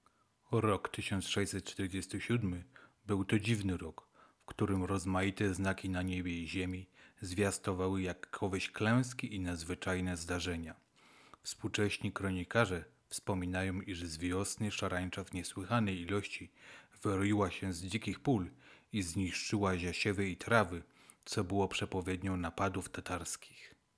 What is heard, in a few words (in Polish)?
z pop filtrem siatkowym